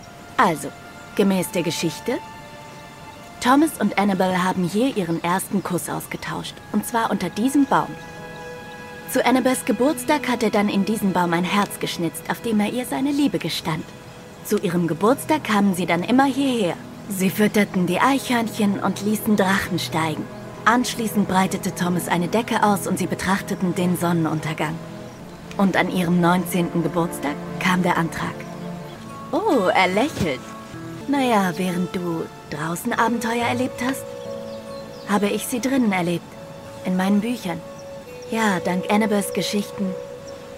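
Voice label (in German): Synchron romantische Komoedie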